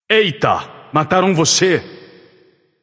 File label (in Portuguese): notify slain you, vox caster portuguese